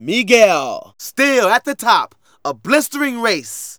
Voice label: Narration